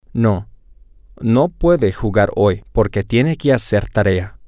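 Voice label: Spanish, male; no she can't play today because she has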